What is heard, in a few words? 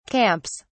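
"camps", British English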